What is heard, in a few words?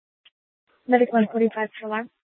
Fire, scanner; PHX Fire